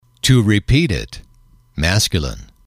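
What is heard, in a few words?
"to repeat it", English, male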